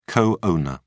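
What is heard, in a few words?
"co owner", English, British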